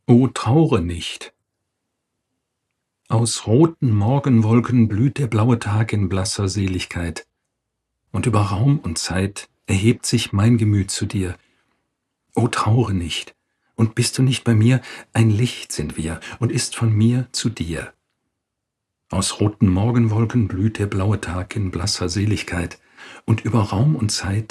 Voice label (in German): LYRIK, Hörbücher; Christian Morgenstern; O traure nicht